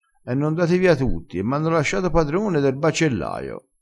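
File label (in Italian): "padrone der", dialettale crespinese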